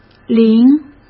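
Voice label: "灵", Mandarin Chinese; chinese flashcards; hsk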